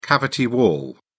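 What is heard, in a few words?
"cavity wall", British English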